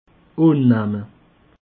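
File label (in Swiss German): "balle", Alsatian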